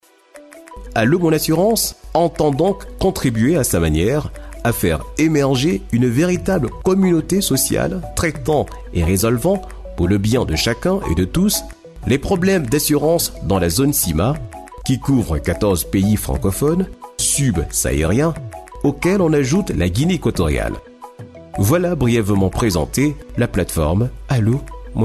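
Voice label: French African male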